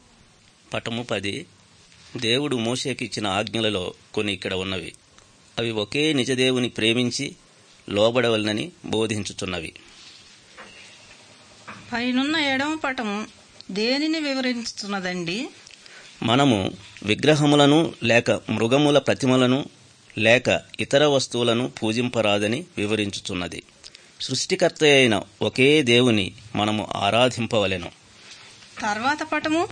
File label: good news telugu